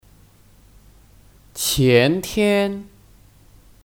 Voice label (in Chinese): "前天", Qiántiān; Keterangan waktu; Kemarin lusa